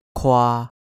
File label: "kwaa1", Cantonese